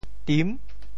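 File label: "tim6", Teochew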